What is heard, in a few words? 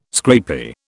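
"scrapie", British English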